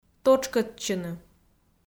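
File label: "тотшкӧдчыны", Komi, female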